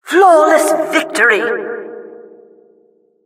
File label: announcer flawless victory